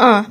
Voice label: "uh" drag; ooh ahh; uh um